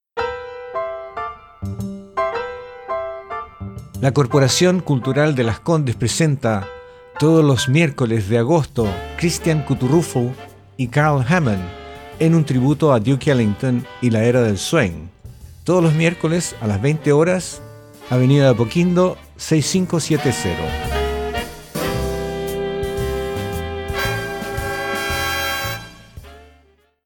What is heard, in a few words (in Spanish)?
ANUNCIO